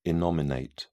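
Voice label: "innominate", British English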